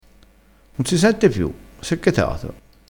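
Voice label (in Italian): "chetato", dialettale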